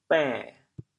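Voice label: "bên3", Teochew, chaoyang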